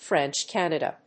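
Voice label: "French Canada", English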